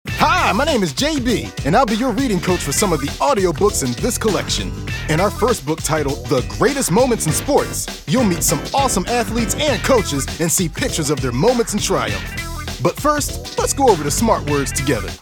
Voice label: narration voice over reading coach, Upbeat, Energetic